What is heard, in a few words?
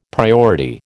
"priority", American